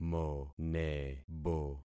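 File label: "monebo", concatenated syllables; Affixing experiment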